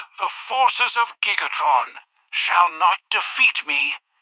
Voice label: upset; fertig classic games; fireball